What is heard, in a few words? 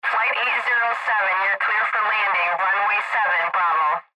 Air Traffic Control Landing